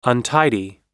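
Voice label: "untidy", American English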